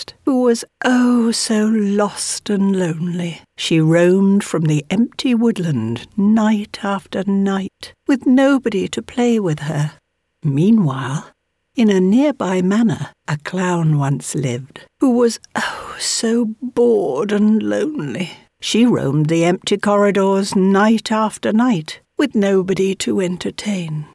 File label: Audiobook Halloween Story